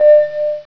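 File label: "bell", voice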